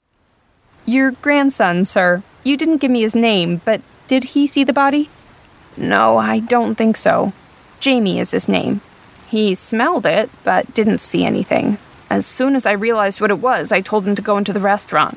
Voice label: character